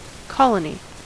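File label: "colony", American English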